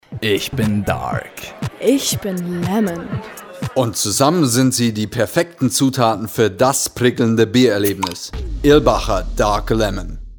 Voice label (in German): Werbung Reminder; Irlbacher